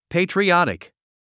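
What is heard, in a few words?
"patriotic", English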